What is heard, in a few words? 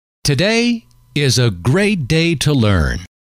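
today Is A Great Day To Learn, male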